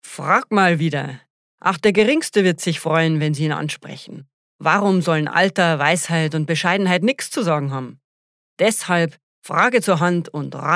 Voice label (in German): Akzent Bayerisch